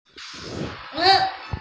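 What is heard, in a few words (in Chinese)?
"ji1mu4", Mandarin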